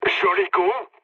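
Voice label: bomb success, mood low, French; War Thunder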